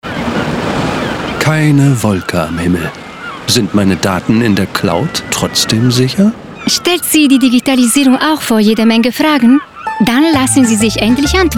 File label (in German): Digitalia Spot Strand